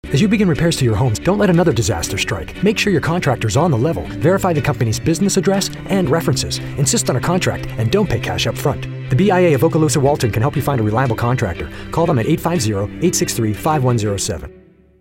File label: PSA; Rebuild; Okaloosa Walton